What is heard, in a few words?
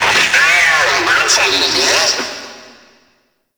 Friendly Hack Vocal; Entrati; Necramech; NechramechHack